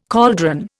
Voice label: "cauldron", Indian